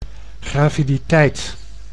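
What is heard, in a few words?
"graviditeit", Dutch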